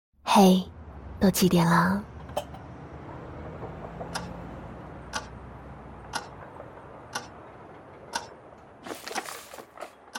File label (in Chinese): 女 广告 放松 冥想; 亚朵星球